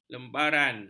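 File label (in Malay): "lembaran", Malay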